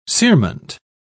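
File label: "cerement", British English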